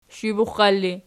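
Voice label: sshibuxal ergative, Archi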